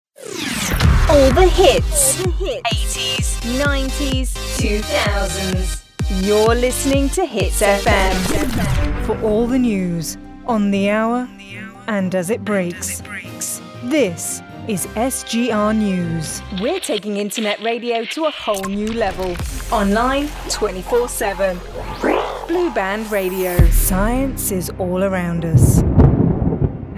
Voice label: Imaging